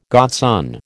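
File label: "godson", American English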